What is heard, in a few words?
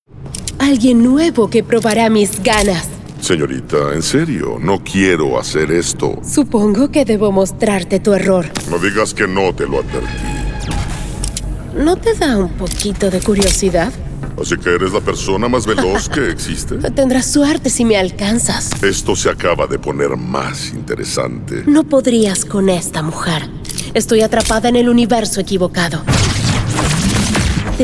VIDEOGAMES SPA